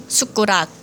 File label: spoon, Jeju-eo; 숟구락; [sut-gu-rak] noun spoon; (Korean) 숟가락